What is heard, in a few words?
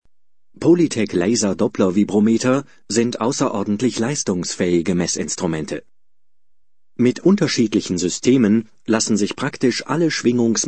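german male voice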